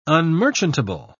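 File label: "unmerchantable", British English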